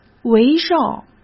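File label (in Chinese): "围绕", Mandarin Chinese; hsk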